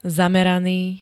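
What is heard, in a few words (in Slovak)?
"zameraný", Slovak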